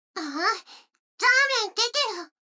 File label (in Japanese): 女の子台詞